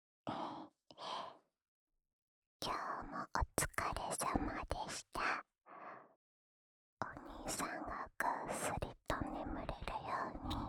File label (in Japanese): 真東風の安眠用添い寝ボイス（右耳）, ASMR